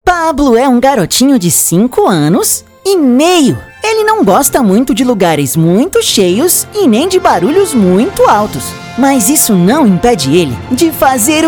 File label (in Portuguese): Locução; NatGeoKids